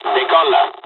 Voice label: mission fly, dialogs italian; War Thunder